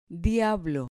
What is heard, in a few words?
"diablo", Spanish